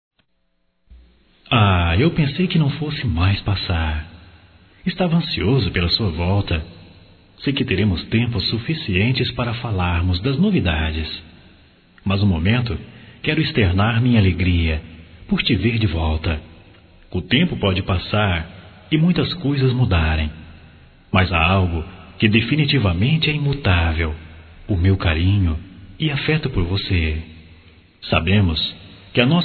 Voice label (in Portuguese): Boas Vindas Geral Masculino